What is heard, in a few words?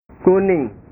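"kuning", Indonesian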